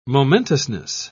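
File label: "momentousness", British English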